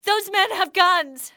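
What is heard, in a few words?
those men, english, female; XBOX; civ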